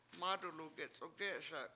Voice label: mAdulu gec7ugE7eSa he carries it with hands, Washo